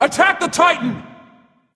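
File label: vox attack male; smite; terra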